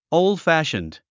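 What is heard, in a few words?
"old-fashioned", English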